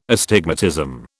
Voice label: "astigmatism", British

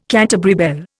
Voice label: "Canterbury bell", Indian

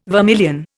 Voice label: "vermilion", Indian

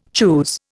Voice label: "choose", Indian

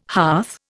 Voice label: "hearth", Indian

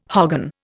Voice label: "hogan", Indian

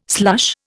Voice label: "slush", Indian

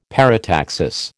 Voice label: "parataxis", American

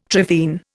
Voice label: "trephine", Indian

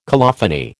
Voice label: "colophony", American

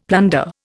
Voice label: "plunder", Indian; Dictionary

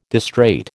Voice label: "distrait", American

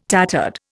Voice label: "tattered", Indian

